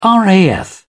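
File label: "raf", British English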